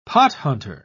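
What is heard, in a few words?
"pothunter", British English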